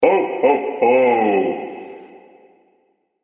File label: santa christmas sound effect